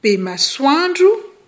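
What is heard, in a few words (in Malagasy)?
"be masoandro", Malagasy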